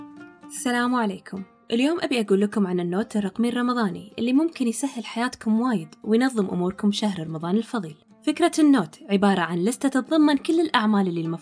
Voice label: kuwaiti; vocalglobal